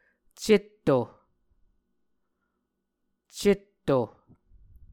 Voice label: "cetto", muscogee

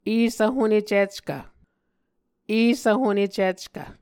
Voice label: "esvhonecickv", Muscogee; Mvskoke Words with Voicing Consonants; A Dictionary of Creek/Muskogee; SIL International; Onvkv Ohhvyayices

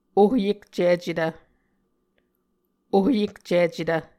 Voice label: "ohyekcicetv", Muscogee; Mvskoke Words with Voicing Consonants; Onvkv Ohhvyayices

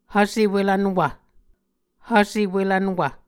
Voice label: "hvse welvnwv", Muscogee

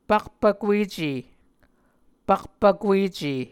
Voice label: "pakpvkuece", Muscogee

